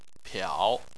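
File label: "piao" third tone, Chinese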